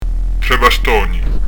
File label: "3 bastoni", Prononciation du corse; trè bast'òni